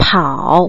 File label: "pao3", Putonghua